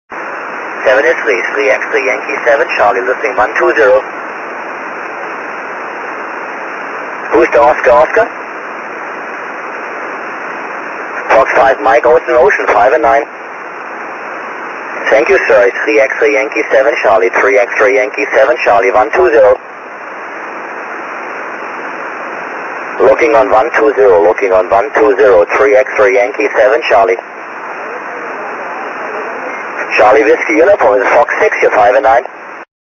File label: 6m ssb